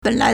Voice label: "ben3lai2", Chinese